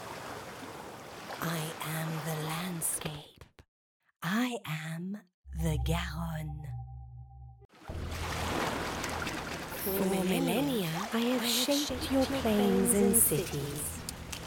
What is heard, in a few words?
Musée d'Aquitaine, extracts museum audioguide (English); La Garonne